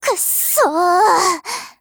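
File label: LOW MOOD, Japanese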